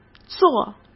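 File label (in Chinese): "坐", Mandarin Chinese; hsk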